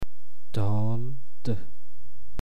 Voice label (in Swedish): "dal", persiska